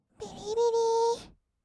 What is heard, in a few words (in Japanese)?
びりびりー, kawaii voice; ASMR; 色々なワンショット